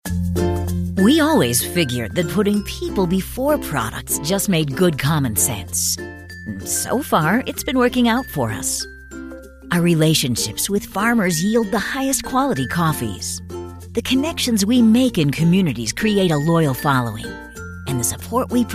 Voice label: Broadcast Commercial Compressed